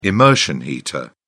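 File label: "immersion heater", British English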